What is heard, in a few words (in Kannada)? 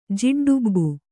"ಜಿಡ್ಡುಬ್ಬು", kannada